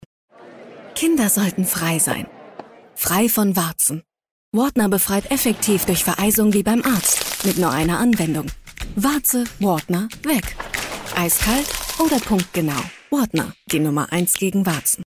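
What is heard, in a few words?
German TVC; Wartner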